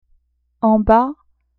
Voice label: "en bas", French; adverbs

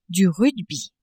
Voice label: "rugby", French

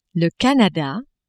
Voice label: "Canada", French

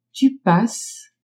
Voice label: tu passes, French

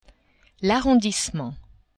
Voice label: "arrondissement", French; geographie

